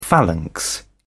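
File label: "phalanx", English, British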